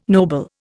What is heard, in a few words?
"noble", Indian